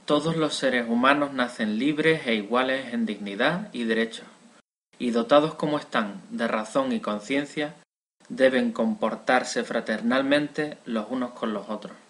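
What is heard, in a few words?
Spanish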